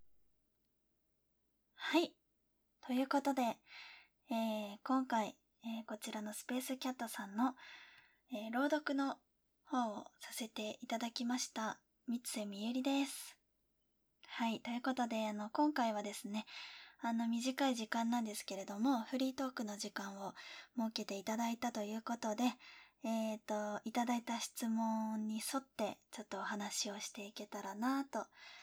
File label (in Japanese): フリートーク